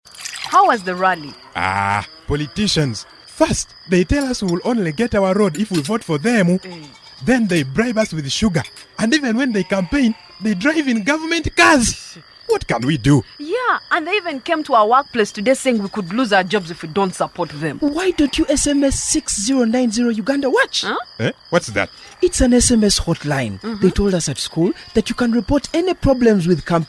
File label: english advert